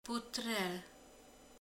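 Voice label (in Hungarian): "nyit", Hungarian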